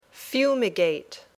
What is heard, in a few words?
"fumigate", English